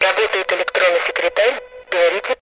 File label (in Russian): АОН РУСЬ АВТООТВЕТЧИК